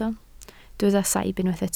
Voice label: "saib", Welsh